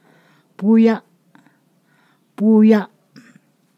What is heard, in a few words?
"young child", Ponosakan